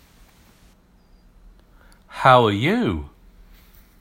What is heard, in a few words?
how are you UK